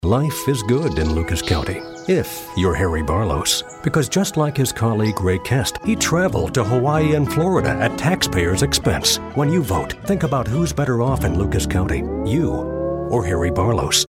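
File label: Political spot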